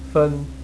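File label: "fen", Chinese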